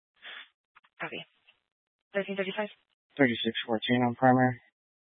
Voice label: Police Department Dispatch, scanner